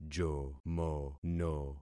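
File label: "jomono", concatenated syllables; Affixing experiment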